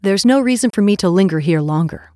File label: move In Church, en; Black Survival Eternal Return; Nadine